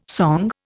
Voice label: "song", Indian